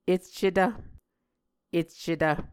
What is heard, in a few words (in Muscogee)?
"eccetv", Muscogee; Onvkv Ohhvyayices; A Dictionary of Creek/Muskogee; SIL International